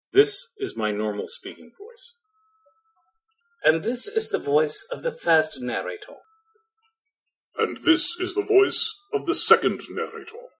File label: Shikari Voices